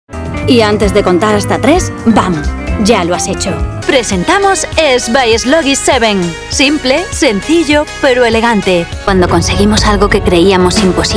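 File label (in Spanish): generico España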